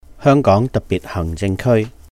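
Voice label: "Hèung Góng Dahk Biht Hàhng Jing Kēui", Cantonese; 香港特別行政區; HKSAR; holidays and festivals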